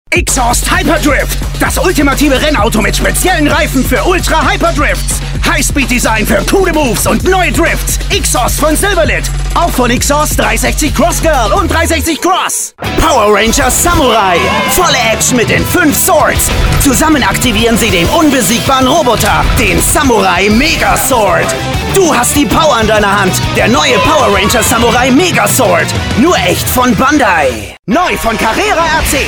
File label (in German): Werbung aggressiv dynamisch kratzig energetisch jugendlich; Silverlit; Bandai; Carrera